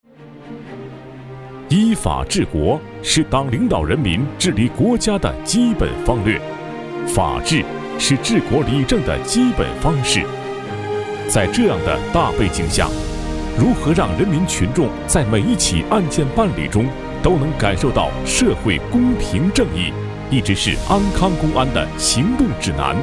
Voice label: 男 安康公安（浑厚力度正式）

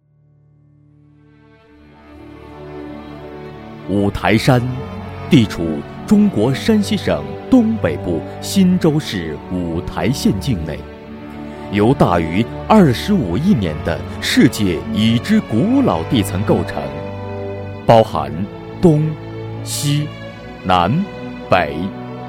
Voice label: 男 稳重; 五台山; 优质年轻

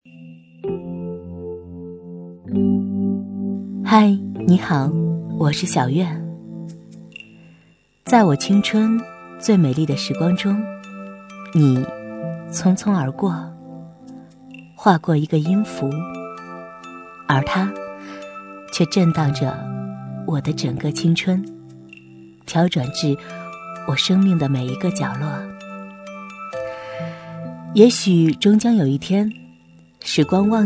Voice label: 女 深情

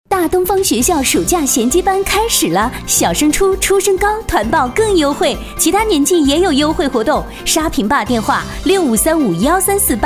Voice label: 女 暑期培训（激情）

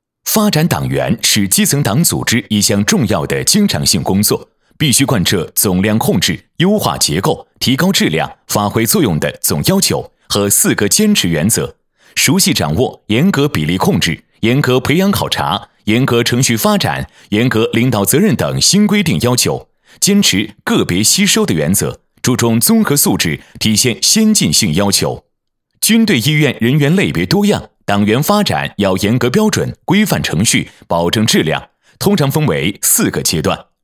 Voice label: 男 干练新闻 发展党员（正式）

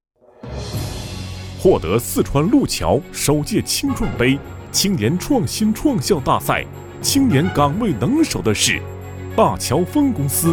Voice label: 男 颁奖样音（大气激情）

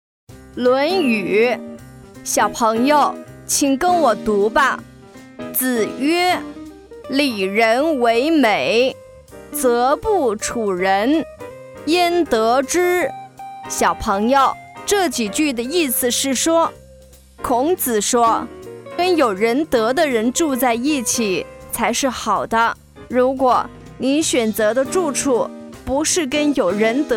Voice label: 女 少儿读物

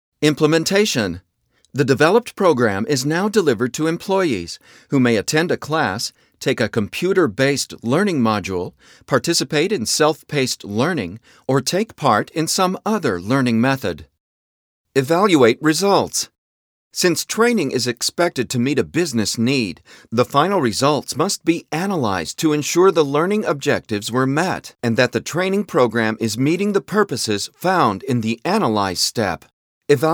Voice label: 外籍男 专题类样音

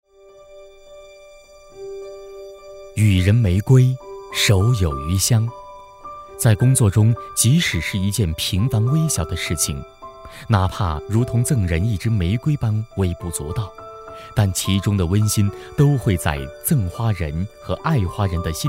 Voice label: 男16 人物宣传 理财经理（温情）

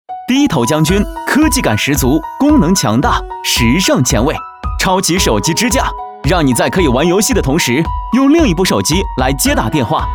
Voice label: 男 手机配件（年轻 时尚）; 飞碟说

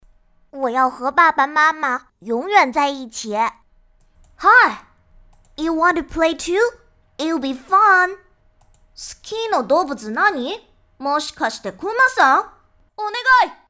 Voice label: 女 中日英男童

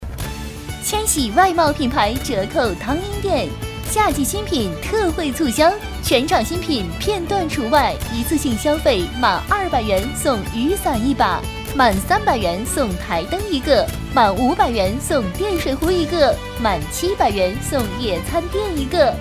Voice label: 女52 服装 外贸（甜美欢快）; 实惠甜美; 服装-千喜外贸

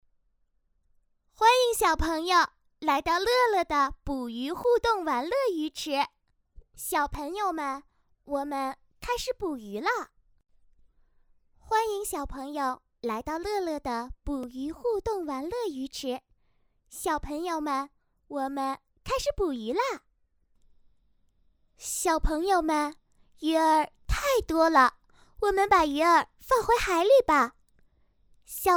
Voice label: 女 捕鱼游戏npc

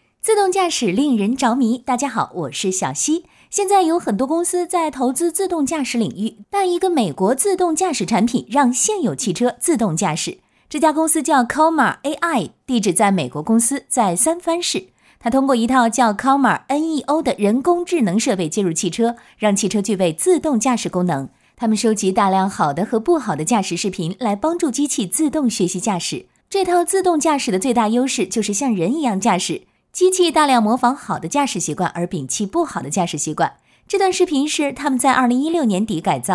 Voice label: 女 自动驾驶（轻快）

